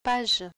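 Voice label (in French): "page", French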